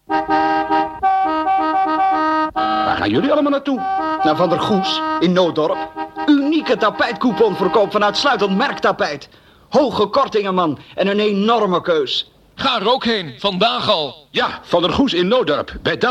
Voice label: commercial